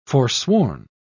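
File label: "forsworn", English, British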